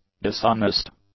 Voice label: "dishonest", English

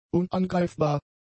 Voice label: "unangreifbar", German; adjectives

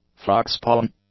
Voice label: "frogspawn", English

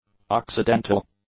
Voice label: "occidental", english; adjectives

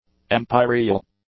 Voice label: "empyreal", English

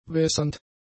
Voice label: "wässernd", German; adjectives

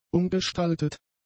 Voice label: "umgestaltet", German; adjectives

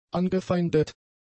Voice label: "angefeindet", German; adjectives

